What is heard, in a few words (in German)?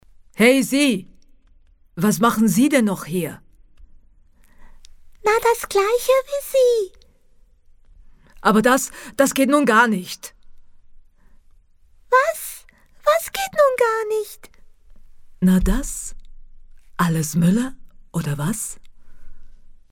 Werbung